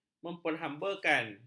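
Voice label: "memperhambakan", Malay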